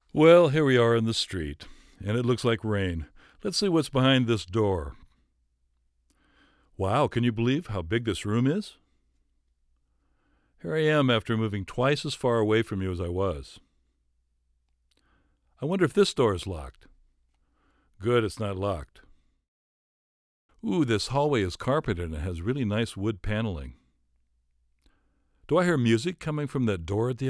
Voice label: Dialog, narrations